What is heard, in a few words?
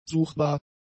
"suchbar", German; adjectives